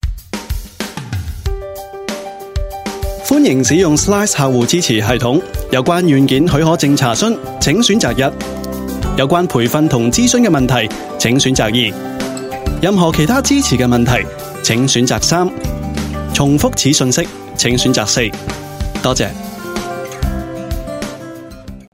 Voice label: Cantonese, Male